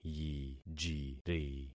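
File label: "yijiri", concatenated syllables; Affixing experiment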